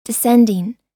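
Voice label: "descending", US English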